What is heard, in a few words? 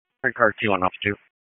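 FIRE Operations, p25; FIRE OPS 2; wake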